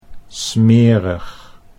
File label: "smerig", Dutch